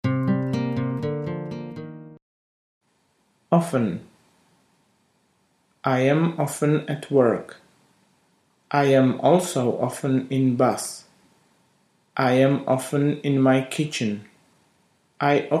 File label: Audiobook Anglictina pro tebe 2 mirne pokrocili; Richard Ludvik